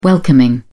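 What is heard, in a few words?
"welcoming", British English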